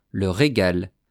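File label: "le regal", French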